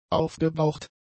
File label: "aufgebraucht", German; adjectives